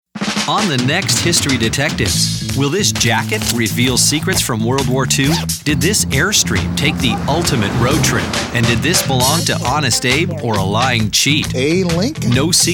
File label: men promos; Our "with it" 40's, friendly, upbeat and energetic guy.